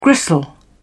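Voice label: "gristle", British English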